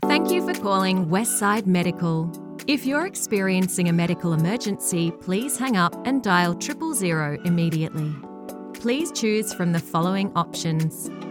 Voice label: On Hold; English (North American)